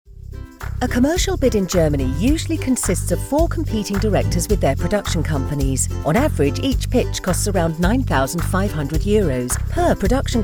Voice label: Narrative; English (British)